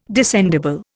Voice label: "descendible", Indian

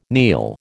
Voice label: "kneel", American

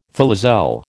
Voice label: "filoselle", American

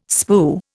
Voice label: "spue", Indian

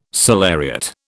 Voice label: "salariat", British

